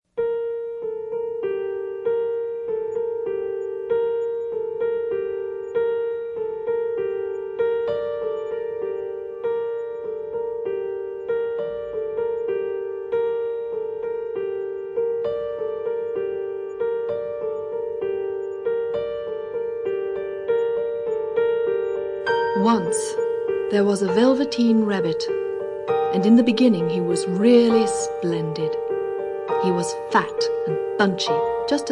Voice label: Christmas (Narration)